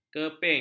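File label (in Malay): "keping", Malay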